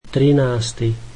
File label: slovak thirteenth